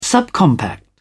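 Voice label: "subcompact", British English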